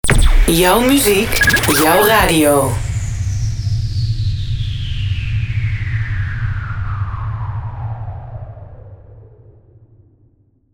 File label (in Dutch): Jouw muziek, Jouw Radio (Met Effect), jingles